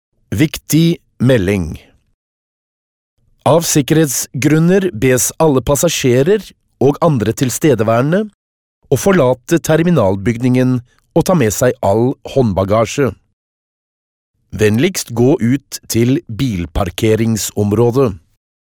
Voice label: locutor noruego norwegian voiceover